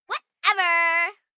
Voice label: "Whatever", Attitude, 1 girl, 10 yrs

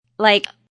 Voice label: Young Lady Says Like; Talking

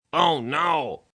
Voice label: Oh No, Male; Exclamation; VoiceBox

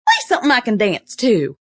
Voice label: "Play Something", Female; Talking

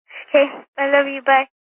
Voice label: Phone Love You Bye, Female, Telephone Voice

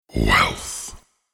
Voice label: The word ‘Wealth’ spoken in deep male voice; Recorded Voice (Sound Effects:Voice)

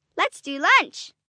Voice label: "Lets Do Lunch", Adult Phrase, 1 child, 6 yrs; Talking; VoiceBox

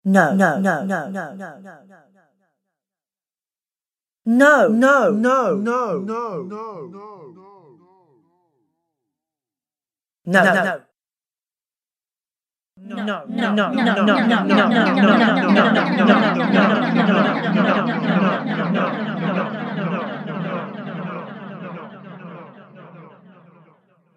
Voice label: Female Voice Says No With Delay Collection

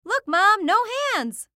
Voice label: Cartoon Voice Female; Cartoon Voices; Blastwave

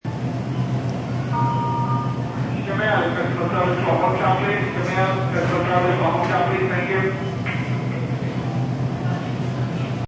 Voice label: Unintelligible announcement in a grocery store.; Stores and Malls

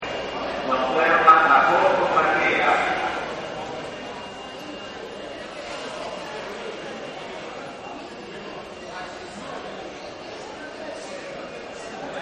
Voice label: Train Station Announce, Pa Announcements; Voice

